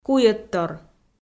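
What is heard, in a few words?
"куйӧдтор", Komi, female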